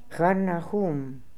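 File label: "Harnahoom", pronunciations; Fourth Way; Beelzebub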